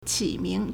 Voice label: "qi3 ming2", Chinese